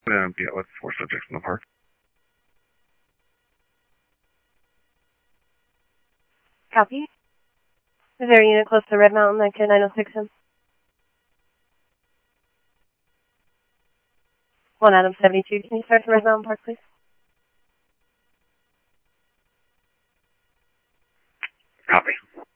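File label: Mesa Simulcast Police Department; MESA PD Superstn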